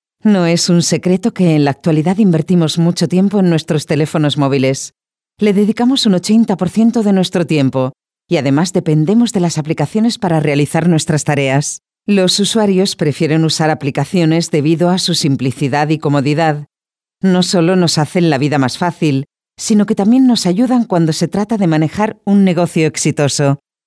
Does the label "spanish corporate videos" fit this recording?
Yes